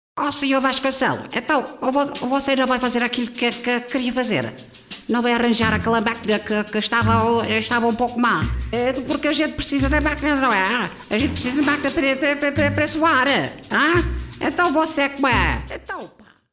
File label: male portuguese voice over talent character; portugal